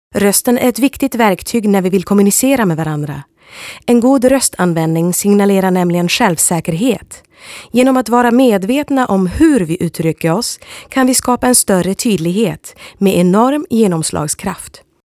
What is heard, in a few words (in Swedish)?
Svenska